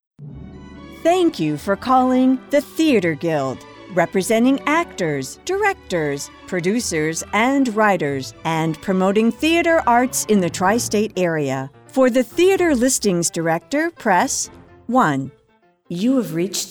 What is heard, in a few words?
TELEPHONY